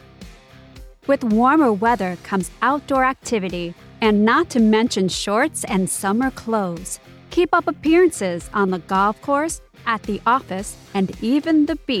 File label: IVR Fitness; No Limits Fitness